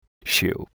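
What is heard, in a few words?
"shoe", English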